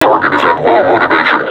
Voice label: taunt, vo combine suppressor; Half Life Alyx